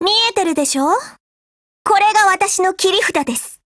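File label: Japanese, Voice; GF Alarm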